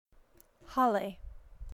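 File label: "hale", Hawaiian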